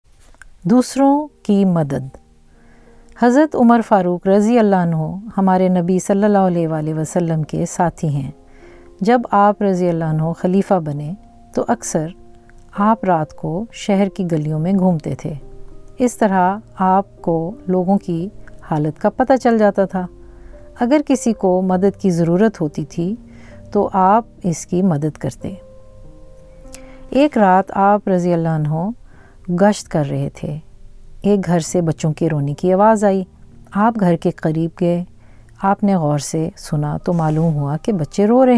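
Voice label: Narration Urdu, female voice, baritone voice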